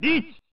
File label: "Neets!" (Male); pro mahjong kiwame; snes